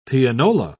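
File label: "pianola", British English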